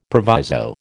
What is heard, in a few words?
"proviso", American